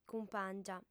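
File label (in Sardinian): "cumpàngia", female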